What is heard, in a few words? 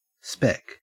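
"spec", Australian English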